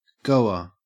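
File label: "goer", Australian English; pronunciations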